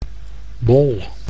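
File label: "bol", Dutch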